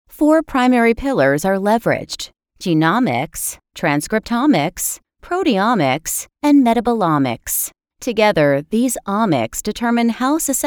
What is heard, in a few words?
medical narration